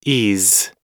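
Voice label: "ease", English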